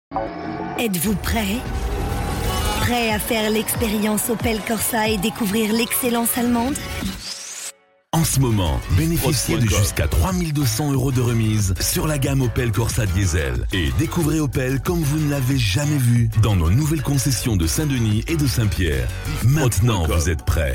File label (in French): Spot Reprise, publicite; Opel Corsa